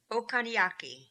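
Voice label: "Okanianki", pronunciations; Fourth Way; Beelzebub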